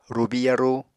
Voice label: "rubi yarú", Apatani

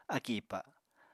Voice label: "akì ipa", Apatani

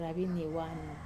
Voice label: "ravinie wana" yesterday, Wamesa

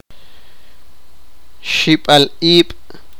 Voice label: sipakapense; fear v

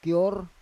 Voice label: "kior", Roon

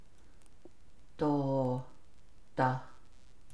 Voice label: navajo; the frame serving to negativize verbs and phrases

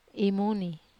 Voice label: "mun", Wamesa

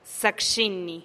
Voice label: "zakxinih", buenas noches

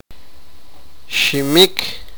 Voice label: sipakapense; tie

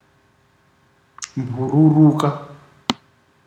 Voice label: "fly", ndau

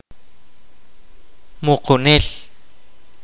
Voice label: kaqchikel; Grave digger

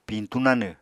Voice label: "piñtú nanw", Apatani

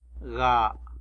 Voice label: "ga", tlacolula; nine

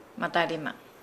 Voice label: Nukuoro; fifty

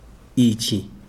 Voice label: "this", Chikunda

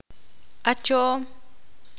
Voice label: ixil; contar 1 2 3

